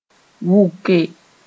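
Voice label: "wʋke", Mooré; divulge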